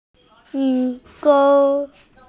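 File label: "yi sheng" Chinese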